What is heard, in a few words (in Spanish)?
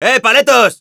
Scout taunts, es